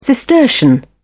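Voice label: "cistercian", British English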